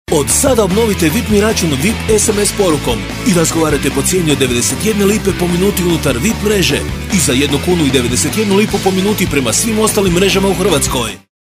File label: locutora croata croatian voiceover; Croatian male voice over